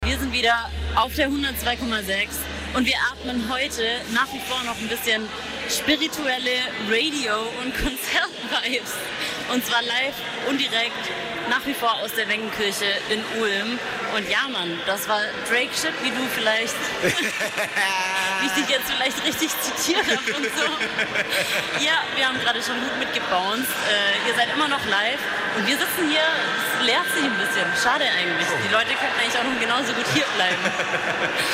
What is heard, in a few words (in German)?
Interview; Sperrstunde; Schlaflos in Lichtenberg; Radio free FM; ulmer freiheit